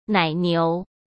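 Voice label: "奶牛", Mandarin Chinese; chinese flashcards; hsk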